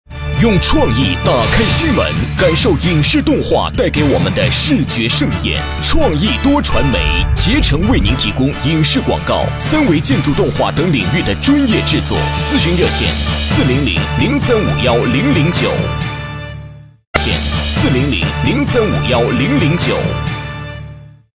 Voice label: 男 广告 激昂大气, 国语男声配音, 浑厚专题; 创意多传媒

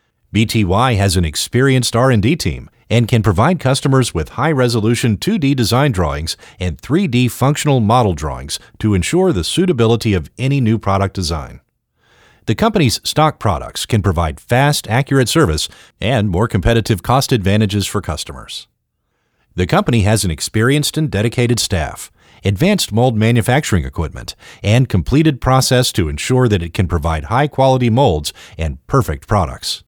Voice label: 英文; 比优特; 苏州; 塑胶科技有限公司解说稿

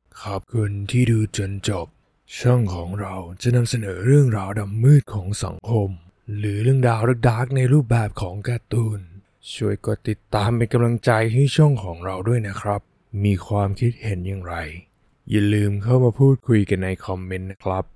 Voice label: 泰语男 低沉浑厚

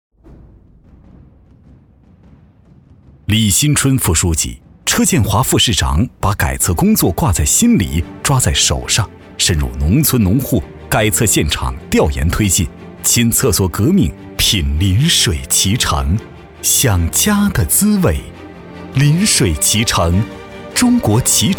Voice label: 男 大气稳重; 脐橙宣传片

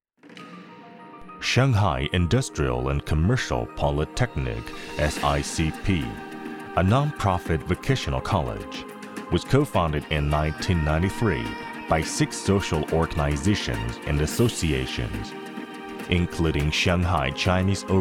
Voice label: 男, 英文, 学校宣传; 上海理工学院

